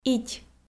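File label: "ить" Komi, female; audio dict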